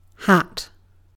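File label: "hat", British English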